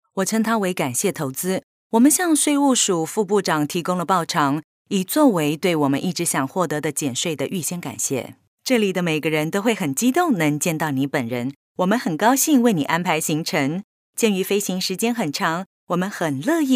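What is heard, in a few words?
Taiwanese Mandarin female voice over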